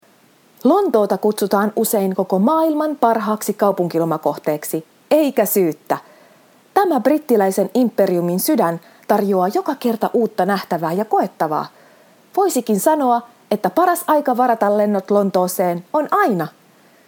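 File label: FI General, Finnish; GoLocalise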